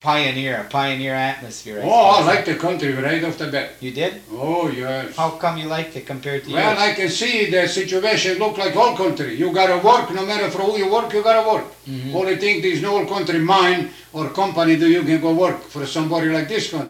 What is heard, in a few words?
Personal history with 88 year old male Eveleth Minnesota, american english dialect